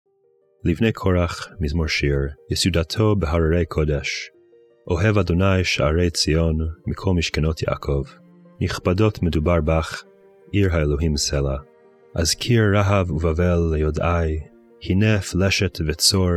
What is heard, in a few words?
poetry and prayer psalm hebrew; Meir Soloveichik